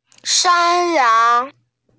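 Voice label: "shan yang", Mandarin Chinese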